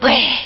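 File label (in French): "ouais", French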